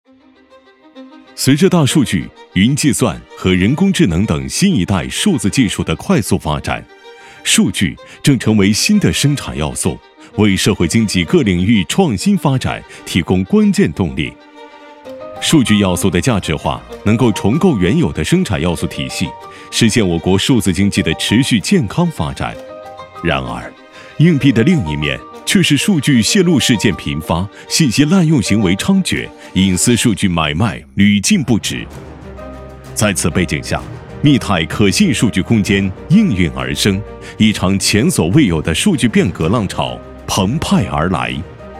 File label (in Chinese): 男 科技 科技感; 数据空间